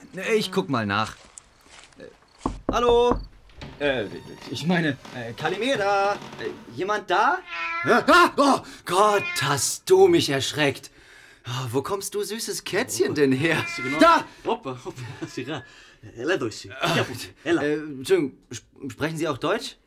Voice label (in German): freundlich; Olymp